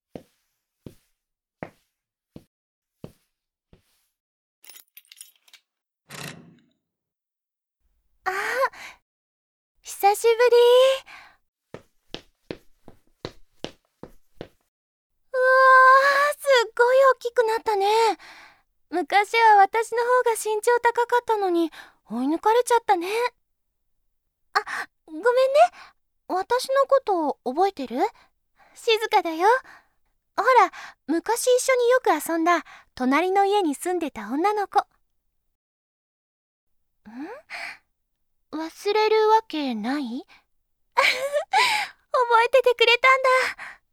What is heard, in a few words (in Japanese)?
本編（SEあり）, ASMR; 【人妻催眠NTR】僕の方が先に好きだったから催眠寝取りで僕のもの【結婚した隣の家のお姉さんを催眠で僕のものにしてみた】